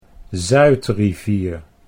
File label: "Zuid Rivier", Dutch